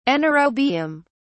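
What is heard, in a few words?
"anaerobium", British English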